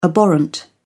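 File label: "abhorrent", British English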